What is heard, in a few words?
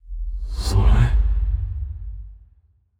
confused; aragami